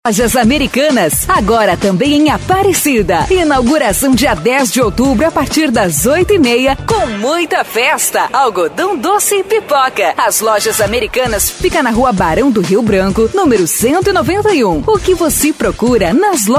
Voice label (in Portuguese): locutora produção de offs vinhetas spots comerciais